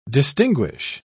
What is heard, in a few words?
"distinguish", pronounce